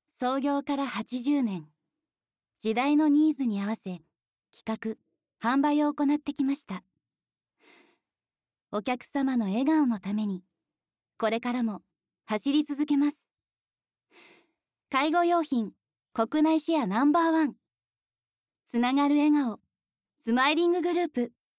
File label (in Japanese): ナレーション